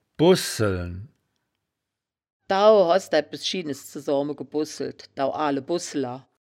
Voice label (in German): "bosseln", woerterbuch, Wittlich